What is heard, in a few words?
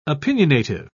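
"opinionative", British English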